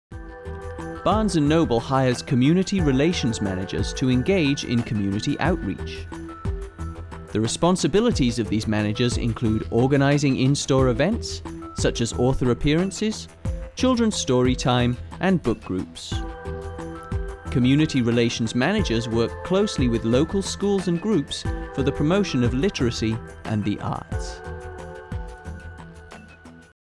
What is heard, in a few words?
narration, english